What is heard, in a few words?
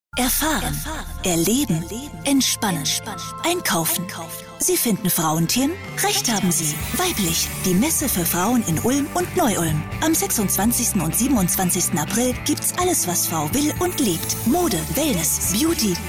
spot